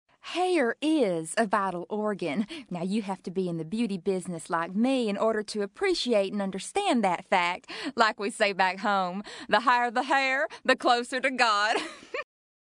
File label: US Southern